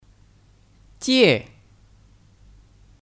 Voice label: "借", Jiè

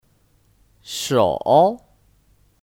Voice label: "手", Shǒu; (Kata benda): Tangan

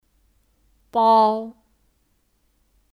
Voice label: "包", Bāo; Kata benda, Kata kerja; Tas

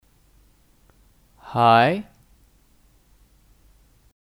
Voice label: "还", Hái

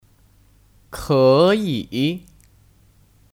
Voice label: "可以", Kěyǐ; Kata kerja; Bisa

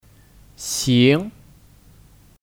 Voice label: "行", Xíng; OK

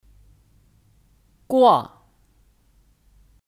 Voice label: "挂", Guà

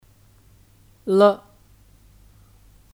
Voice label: "了", Le; Kata bantu; Diletakkan setelah kata kerja/ kalimat dan memiliki arti sudah

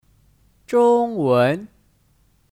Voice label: "中文", Zhōngwén; Kata benda; Bahasa Mandarin

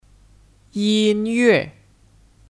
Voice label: "音乐", Yīnyuè; (Kata benda): Musik

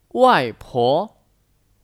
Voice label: "外婆", Wàipó

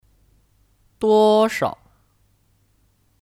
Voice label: "多少", Duōshao

